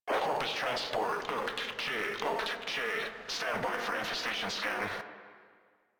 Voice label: Controller, Radio Interceptions